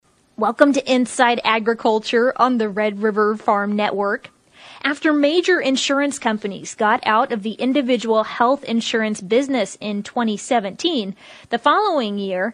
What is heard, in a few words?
air check